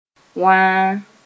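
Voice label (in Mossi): "wãa", Mooré; perplex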